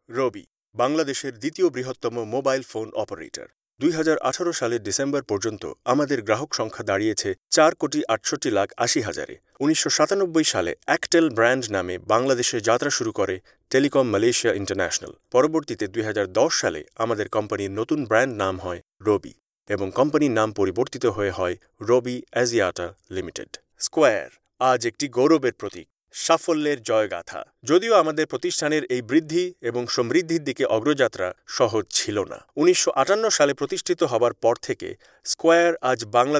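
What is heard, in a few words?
Bengali Corporate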